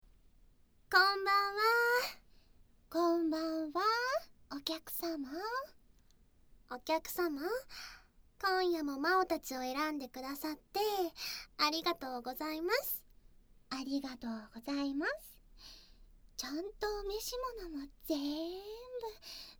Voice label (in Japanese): カウントダウン 効果音なし, ASMR; あまあまいじめりょかん; 初宿泊から1週間後